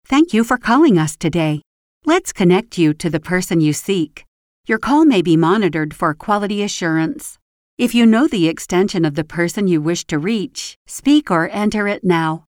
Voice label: english-us female ivr